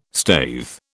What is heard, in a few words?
"staithe", British